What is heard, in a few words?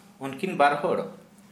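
Santali, male, they two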